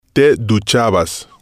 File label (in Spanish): "te Duchabas", spanish